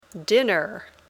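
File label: "dinner", English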